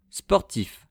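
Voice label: "sportif", French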